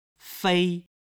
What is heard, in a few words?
"fei", Chinese